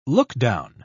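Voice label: "lookdown", British English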